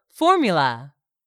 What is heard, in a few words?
"formula", English; 3000 words